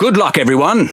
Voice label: Pirate Speech Quick Commands Good Luck; World Of Warships

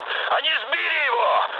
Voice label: aircraft destroyed, mood med, speech ru

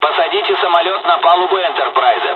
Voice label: landing, dialogs russian; midway; War Thunder

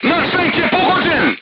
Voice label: voice message player tank hit, crew tanks serbian

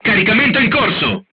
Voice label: reloading, crew tanks italian; War Thunder

